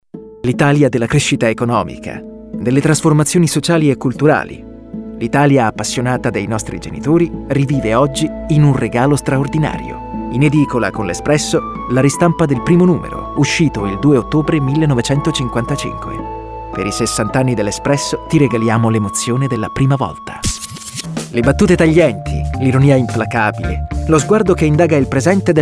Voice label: Radio Commercial